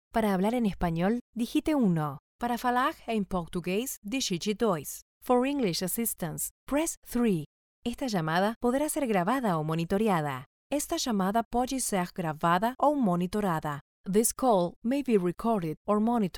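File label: spanish argentinian interactive voice response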